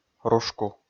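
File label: "рушку", Komi, male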